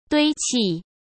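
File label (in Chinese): "堆砌", Mandarin Chinese; chinese-flashcards; hsk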